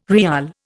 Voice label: "riyal", Indian

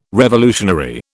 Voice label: "revolutionary", British

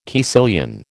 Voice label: "caecilian", American

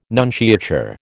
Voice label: "nunciature", American

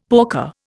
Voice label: "porker", Indian; Dictionary

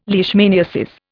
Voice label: "leishmaniasis", Indian

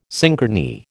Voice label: "synchrony", American

